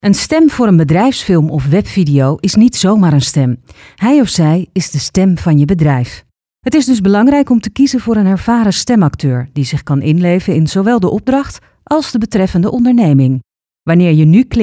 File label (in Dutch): BEDRIJFSFILM